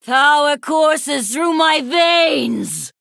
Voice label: Female Sanlayn Emote Trigger Vampiric Blood